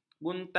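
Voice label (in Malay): "buntar", Malay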